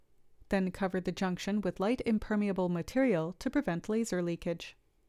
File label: Voiceover